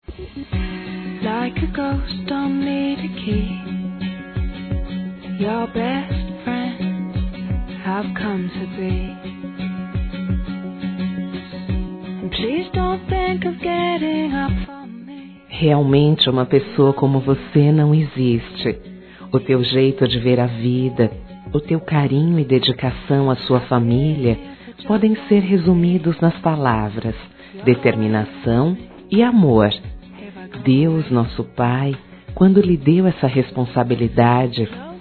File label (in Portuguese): DIA DOS PAIS GERAL, fem; Telemensagens 2017